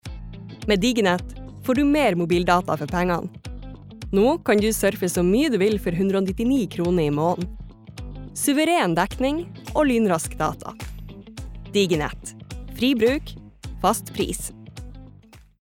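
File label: Norwegian advert, norwegian female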